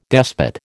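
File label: "despot", American English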